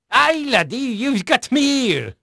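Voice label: Dutch male, voice; Pirates Of The Caribbean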